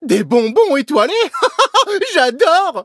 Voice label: levelup fr; cookie run